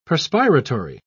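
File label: "perspiratory", British English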